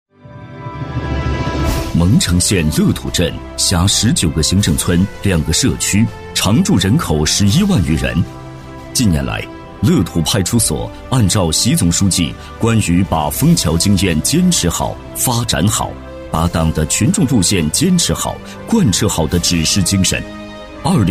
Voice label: 男, 政府宣传片, 派出所, 正气厚重; 高端厚重; 大气浑厚; 蒙城县公安局乐土派出所

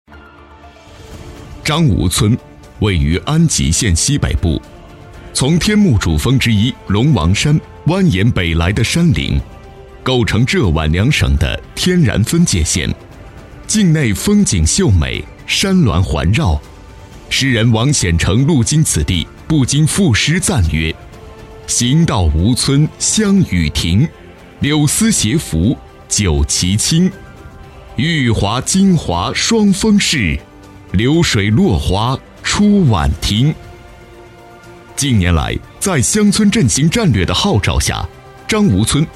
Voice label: 男 专题配音 大气厚重; 磁性大气; 大气浑厚

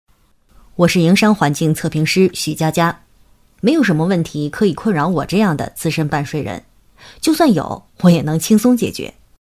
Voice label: 女 角色 办税员女青年

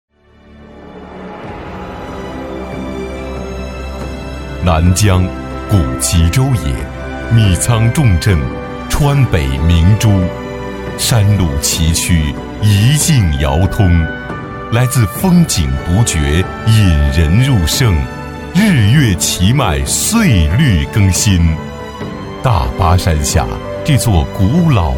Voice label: 男 宣传片 大气舒缓

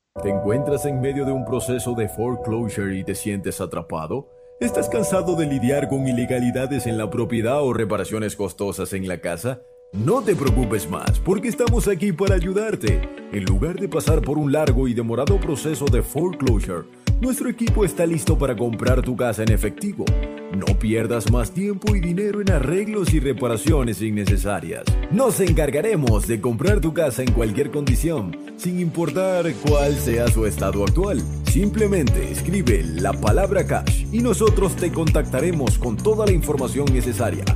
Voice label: 西班牙男声

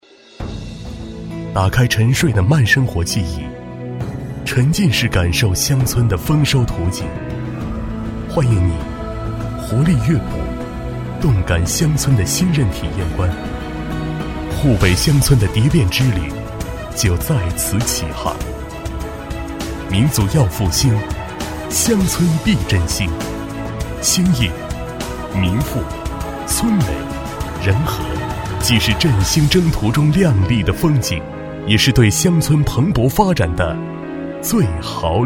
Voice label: 男 讲述感专题片; 男D7-激情大气; 舒缓抒情; 上海

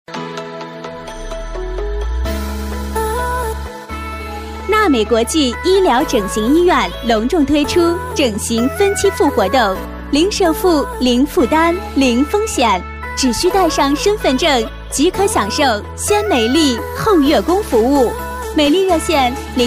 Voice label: 女 广告配音 医疗医药 整形医院; 女24-广告配音【医疗医药-整形医院】

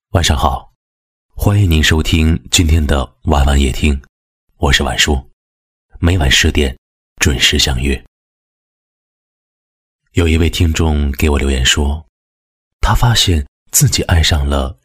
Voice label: 男 电台节目 磁性低沉; 《晚安夜听》

